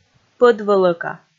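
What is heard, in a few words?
"пӧдвӧлӧка", Komi, female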